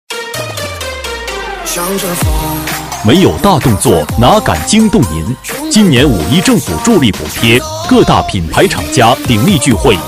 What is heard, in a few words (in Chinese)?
激情促销男-买家电; 买家电到辉祥电器